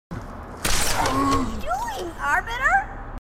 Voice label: grunt; h2dialogue; grunt crazy